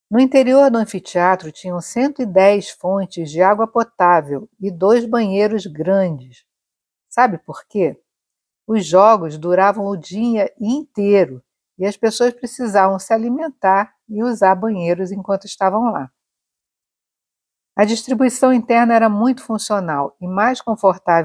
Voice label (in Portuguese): Audioguia; Coliseu; Interior